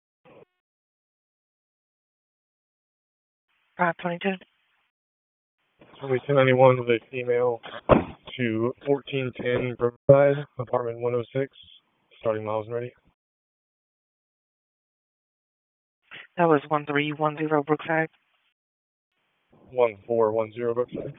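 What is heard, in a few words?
Police District Dispatch, p25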